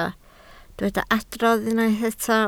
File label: "adrodd", Welsh